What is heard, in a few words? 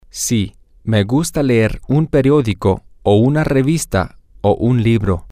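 yes I like to read a newspaper or a maga, Spanish, male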